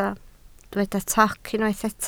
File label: "toc", Welsh